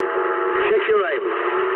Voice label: eng player friendly fire mood low, speech eng; War Thunder